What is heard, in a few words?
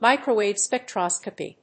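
"microwave spectroscopy", English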